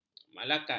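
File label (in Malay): "malakat", Malay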